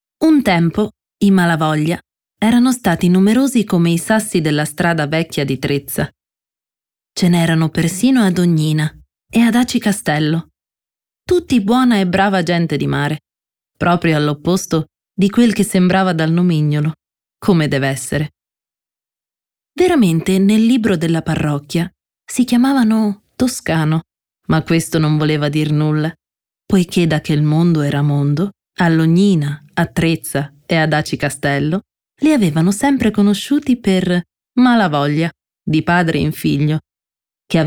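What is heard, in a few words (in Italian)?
Descrittivo, voci femminili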